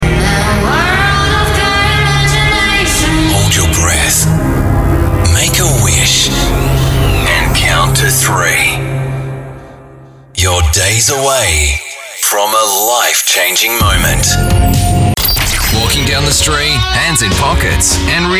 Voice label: AU English Radio Imaging

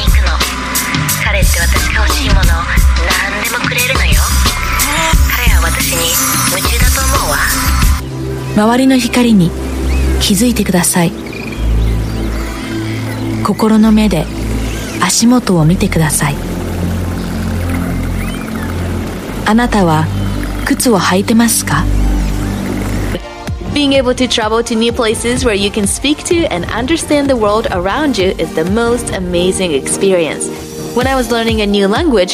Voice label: Japanese Female

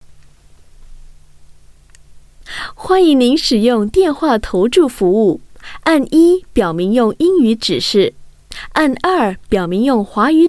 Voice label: Mandarin IVR, China Female; Telebet

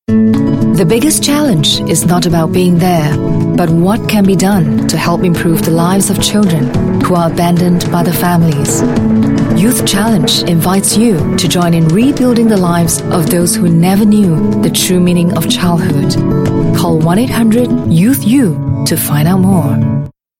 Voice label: Asian English youth challenge Asian

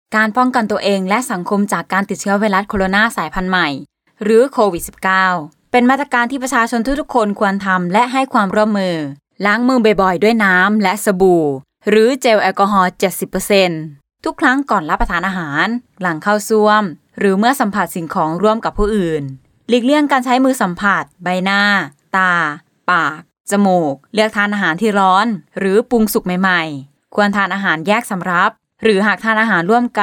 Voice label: Thai Female Informative

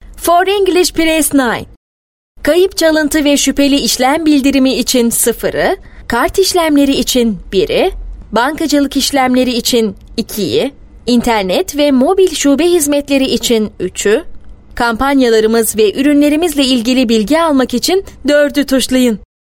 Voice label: Turkish Female

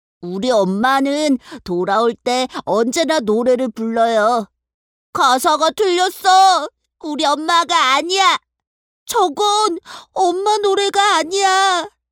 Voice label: Korean Character Young Boy; Female

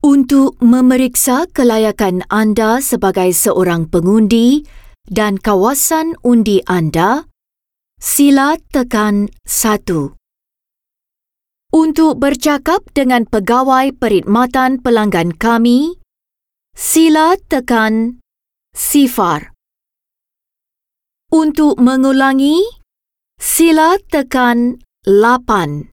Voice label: Malay F; VO Only

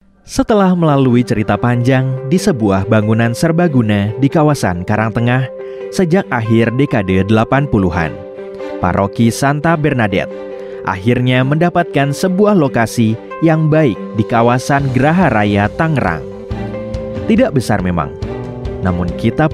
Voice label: Bahasa Indo Video Profile; Paroki Bernadet Ciledug

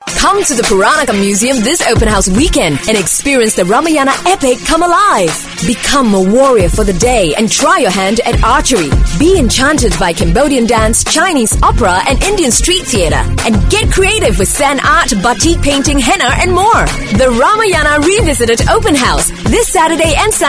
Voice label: Asian English Strong; Peranakan Musuem

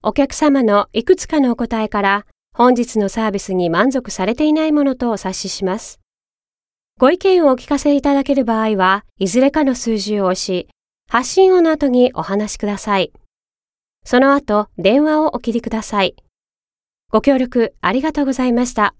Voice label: Japanese IVR, Japanese Female; Etihad

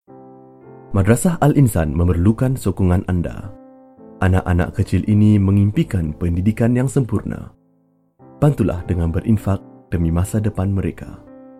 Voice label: Malay; Infaq Madrasah Al-Insan

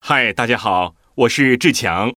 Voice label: Intro Mandarin, Male; China

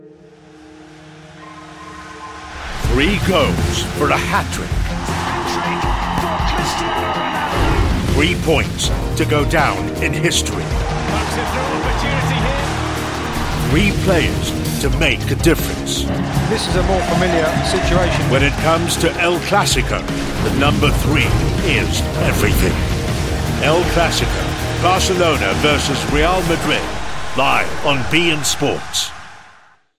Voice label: Asian English; El Classico New Cut

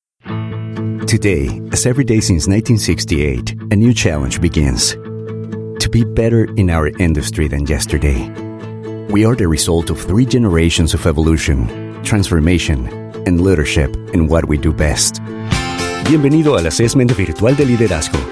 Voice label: Latin Spanish Corporate eLearning

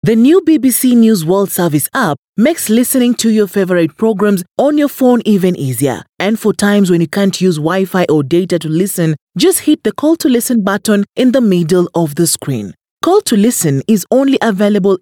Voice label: Swahili Radio English; Bbc

